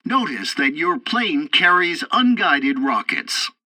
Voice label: assault rockets, english; War Thunder

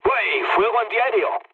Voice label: damaged aa, mood low, speech; War Thunder

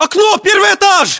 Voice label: location first floor window, ru; localized english

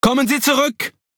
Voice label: Speech Quick Commands Back Ship, DE; World Of Warships

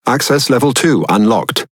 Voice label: Pirate Speech Access Level; World Of Warships

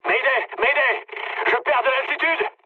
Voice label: aircraft destroyed mood med, speech fr

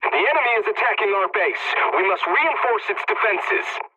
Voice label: pilot base under attack, english; War Thunder

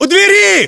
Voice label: landmark near in doorway, english

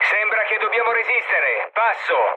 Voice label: chat, speech it; War Thunder

